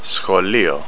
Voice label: School, Greek

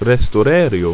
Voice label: "restor", ido

